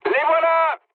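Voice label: French enemy detected mood low; War Thunder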